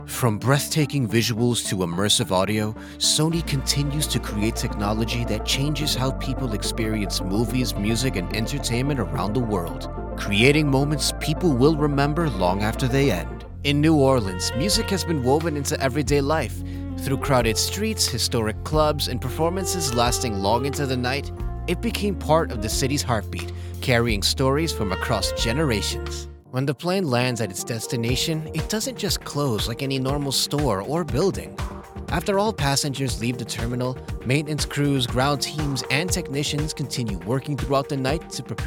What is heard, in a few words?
Narration